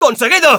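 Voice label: Scout cheers, Spanish; "¡Conseguido!"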